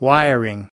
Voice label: "wiring", us